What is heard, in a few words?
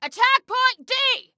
attack, Female